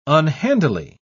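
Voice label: "unhandily", British English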